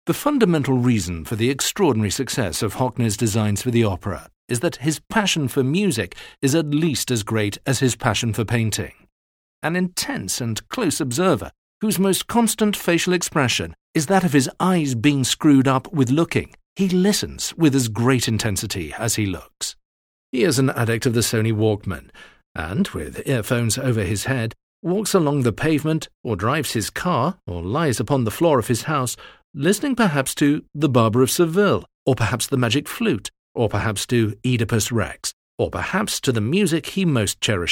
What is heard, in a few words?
english british audiobooks